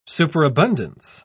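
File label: "superabundance", English, uk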